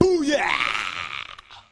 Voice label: "booyow", Voice